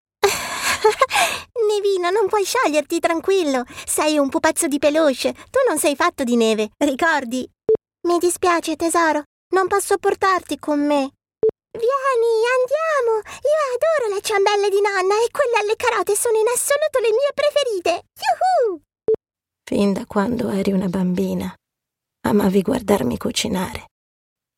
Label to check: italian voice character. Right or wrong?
Right